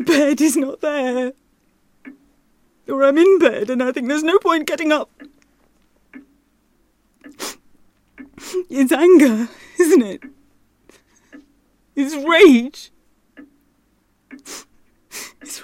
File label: Dramatic